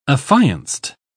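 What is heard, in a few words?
"affianced", English, uk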